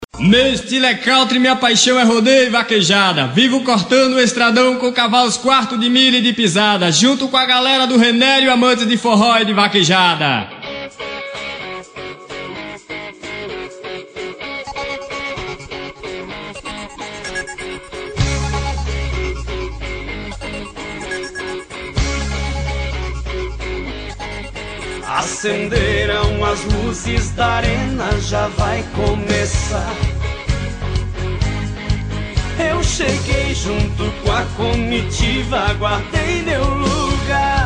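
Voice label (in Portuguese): locutor, festa do peão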